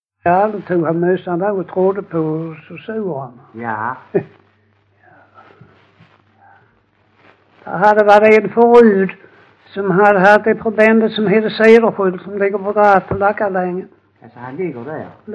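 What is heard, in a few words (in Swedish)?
Lackalänga Skåne, dialekter svenska sagor; Folkminnen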